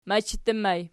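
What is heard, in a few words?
"machattu", ergative plural, Archi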